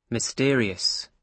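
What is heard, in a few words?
"mysterious", British English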